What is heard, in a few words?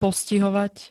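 "postihovať", Slovak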